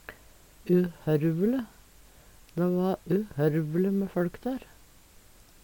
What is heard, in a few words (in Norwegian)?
uhorvle, Numedalsmål; uhorveleg; uhørvele; Determinativ (mengdeord)